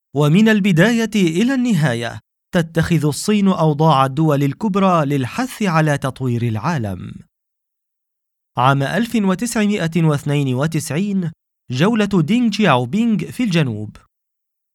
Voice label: arabic narration, male